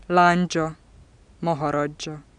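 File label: postalveolar affricate voiced medial, hungarian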